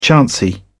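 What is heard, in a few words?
"chancy", British English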